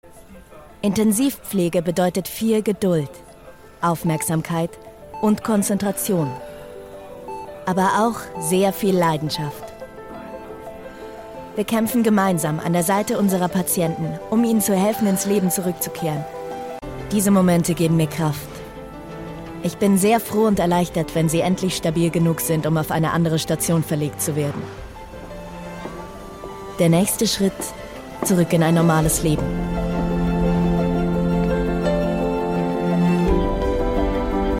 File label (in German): Imagefilm; Ecmo